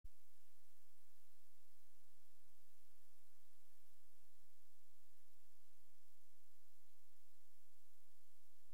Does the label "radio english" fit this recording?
No